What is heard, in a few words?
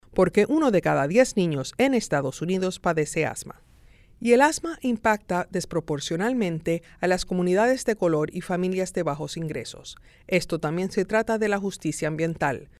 Asthma Spanish